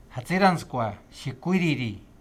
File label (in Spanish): Relleno dermico, Purhepecha Comachuen